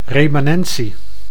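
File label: "remanentie", Dutch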